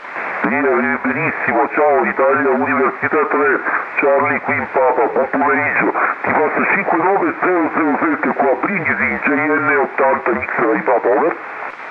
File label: 432 SSB